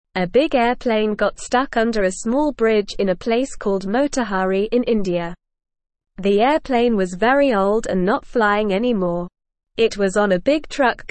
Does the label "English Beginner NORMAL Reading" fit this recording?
Yes